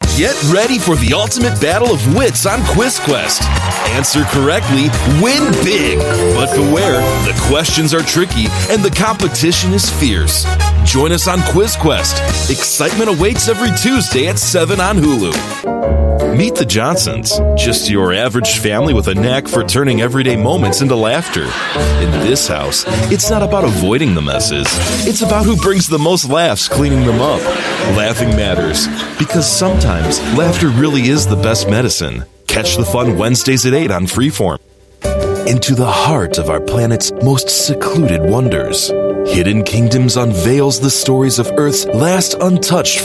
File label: US English TV Promo